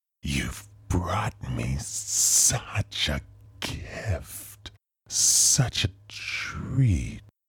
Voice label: possessed soldier, Militaristic entity corrupted by dark forces with haunting, possessed vocal quality, Audiobook Monster